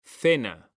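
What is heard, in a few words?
"cena", Spanish, male; mekanta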